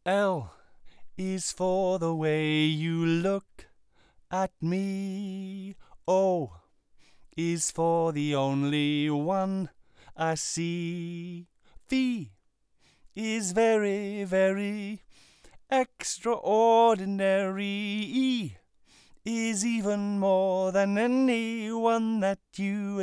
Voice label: Vox Distance Mid